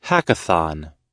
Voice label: "hackathon", US